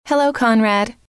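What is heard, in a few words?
"hello", female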